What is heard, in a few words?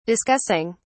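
"discussing", British English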